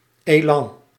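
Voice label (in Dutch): "elan", Dutch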